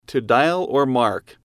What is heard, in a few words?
"to dial mark", English